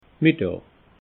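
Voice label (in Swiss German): "middaa", Alsatian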